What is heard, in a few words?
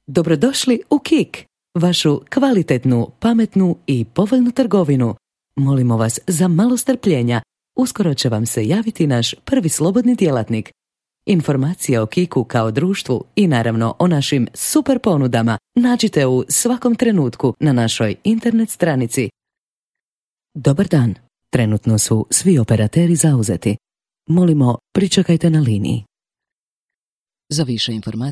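Croatian, female, IVR; voice over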